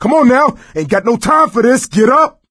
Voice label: revive friend loud; voice; source engine; left 4 dead 2

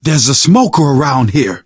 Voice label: heard smoker, survivor voice; source engine; left 4 dead 2; manager

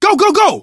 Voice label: emphatic go, survivor voice, manager

